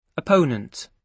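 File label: "opponent", British English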